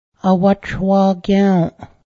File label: accident, cayuga